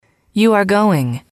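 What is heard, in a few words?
you are going, female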